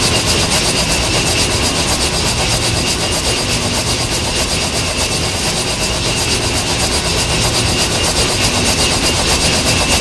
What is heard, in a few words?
feared; smite; god arthur